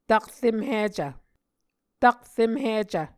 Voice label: "takremhicv", Muscogee